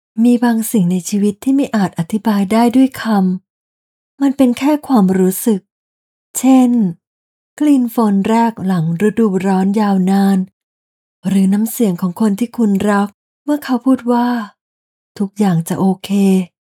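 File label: Narration Healing, Female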